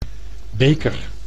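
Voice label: "beker", Dutch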